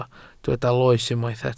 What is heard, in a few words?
"loes", Welsh